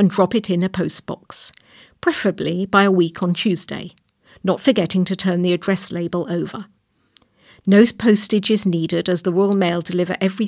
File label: Closing Announcement; chatterbox norwich evening news; 26th november 2020 chatterbox